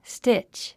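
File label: "stitch", English word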